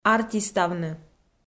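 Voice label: "артиставны", Komi-Zyrian, female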